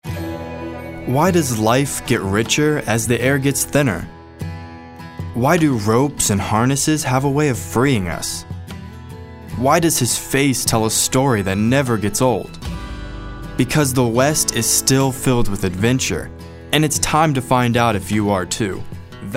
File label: storyteller